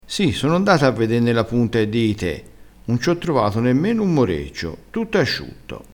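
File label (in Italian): "dii", dialettale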